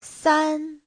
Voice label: "san1", Chinese